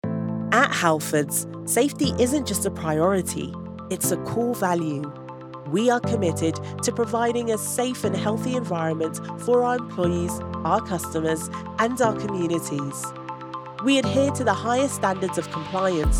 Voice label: english british narration, british voice actors